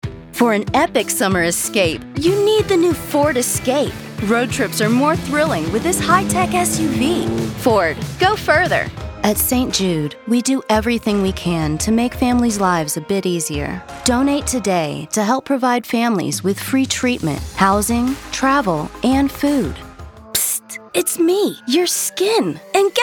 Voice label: Commercial